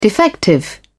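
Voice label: "defective", British English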